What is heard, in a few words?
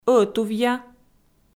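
"ӧтувъя", Komi, female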